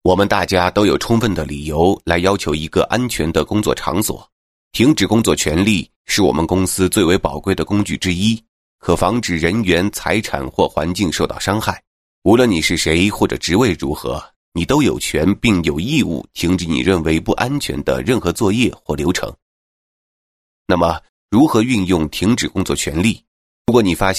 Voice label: Mandarin, Male

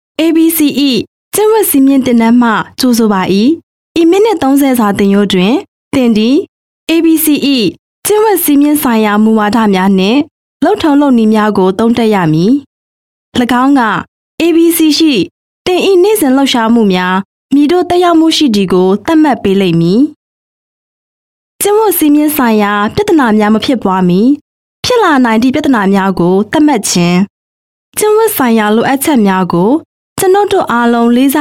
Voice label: Burmese E-Learning

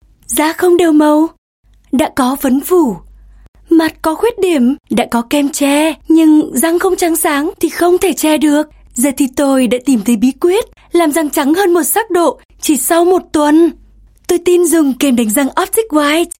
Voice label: North Female Vietnamese

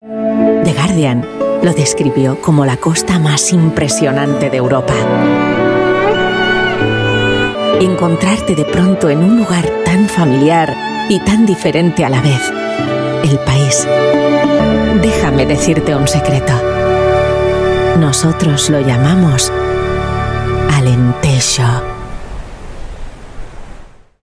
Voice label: Spanish ES Spot Turismo

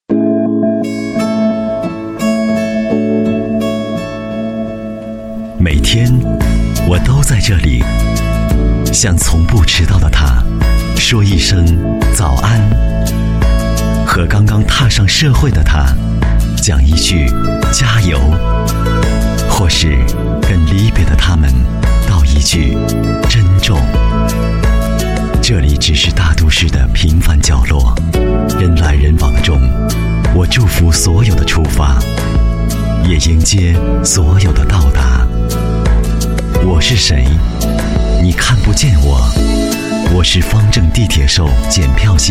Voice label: Mandarin male commercial sample; China

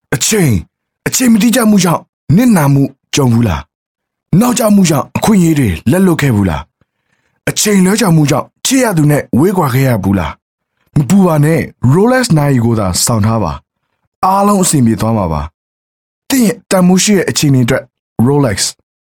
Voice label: Male Burmese TVC

